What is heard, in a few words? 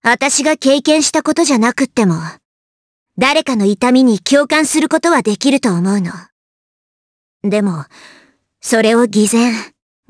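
voice, Japanese; Cecilia; kingsraid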